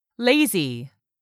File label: "lazy", English; 3000 words